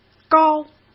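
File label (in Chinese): "高", Mandarin Chinese; hsk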